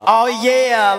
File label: "ah yeah", Voice